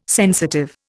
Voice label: "sensitive", Indian

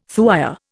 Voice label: "thuya", Indian

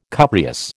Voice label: "cupreous", American

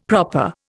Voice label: "proper", Indian; Dictionary

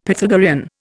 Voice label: "Pythagorean", Indian; Dictionary

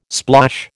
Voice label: "splosh", American

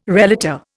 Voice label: "relator", Indian

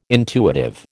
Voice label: "intuitive", American

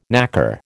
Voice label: "knacker", American

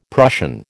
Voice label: "Prussian", American

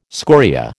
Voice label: "scoria", American

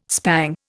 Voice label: "spang", Indian

